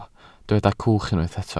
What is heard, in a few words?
"cwch", Welsh